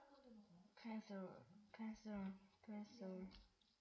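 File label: "pencil", spoken word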